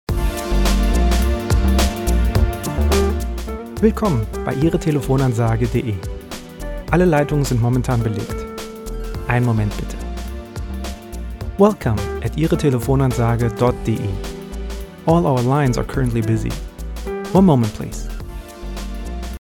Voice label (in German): deutsch englisch